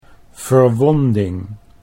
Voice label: "verwonding", Dutch

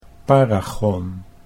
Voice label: "paragon", Dutch

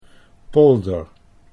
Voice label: "polder", Dutch

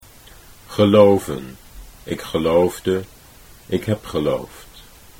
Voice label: geloven ik geloofde, Dutch

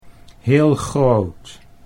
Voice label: "heel groot", Dutch

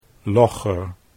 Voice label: "logger", Dutch

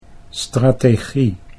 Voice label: "strategie", Dutch

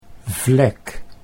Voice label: "vlek", Dutch

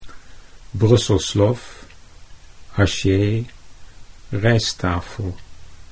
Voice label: "Brussels Lof", Dutch

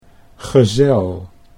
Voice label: "gezel", Dutch

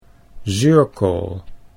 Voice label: "zuurkool", Dutch

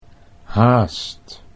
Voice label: "haast", Dutch

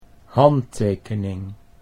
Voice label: "handtekening", Dutch

